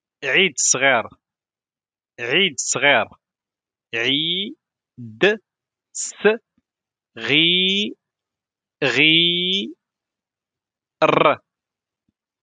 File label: how to say eid sghir in moroccan arabic; muslim morocco